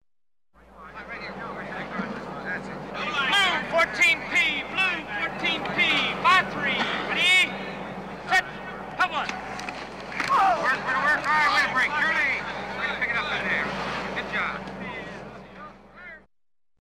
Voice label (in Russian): amerikanskij futbol golosa na anglijskom